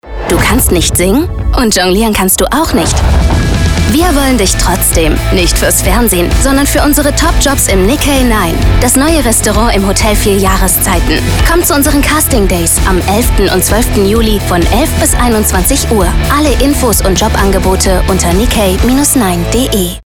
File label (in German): funkspot; nikkeinine